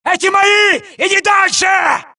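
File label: suppress, dialogue, Allies; mohaa; Mission 3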